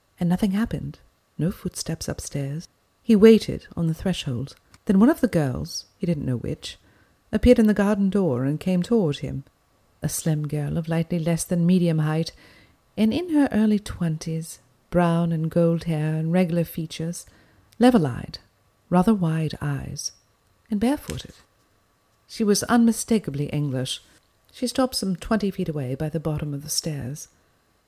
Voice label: Audiobook VO